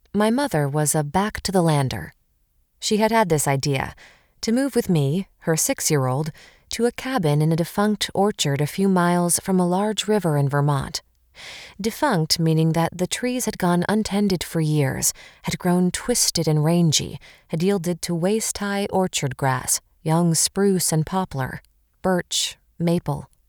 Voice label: Audiobooks